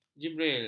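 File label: "jibrail", Malay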